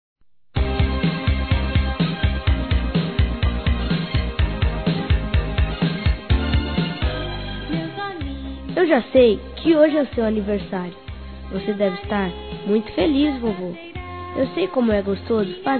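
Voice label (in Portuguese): Masculino, Telemensagens Aniversários; AVO INFANTIL; Telemensagens 2017; Aniv Familiar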